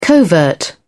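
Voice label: "covert", British English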